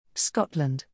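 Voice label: "Scotland", English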